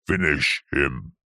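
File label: human male voice pitched down says finish him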